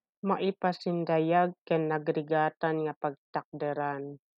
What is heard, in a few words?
Ilocano; prestigious and hard-earned position - maipasindayag ken nagrigatan nga pagtakderan